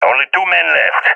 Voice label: enemies left two, balkan; balkan epic

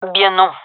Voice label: negative, gendarmerie fem; vo; agents

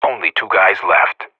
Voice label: radio bot kill count, vo professional

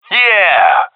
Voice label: cheer; Counter Strike; CS:GO; seal diver

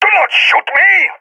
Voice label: taking fire friendly, balkan; vo; balkan epic

